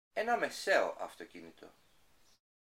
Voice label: GREEK A medium car